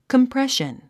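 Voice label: "compression", English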